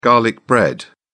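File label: "garlic bread", British English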